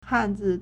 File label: "han4zi4", Chinese